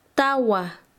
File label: Chanka, quechua; cuatro